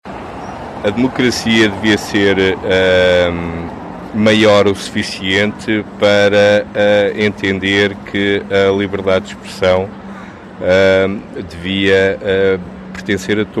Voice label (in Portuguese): Voz Pop